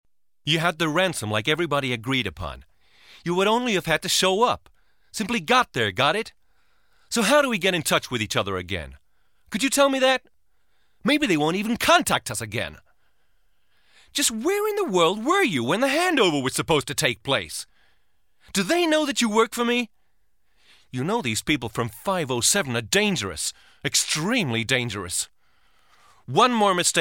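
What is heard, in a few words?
American English, Schauspiel Energisch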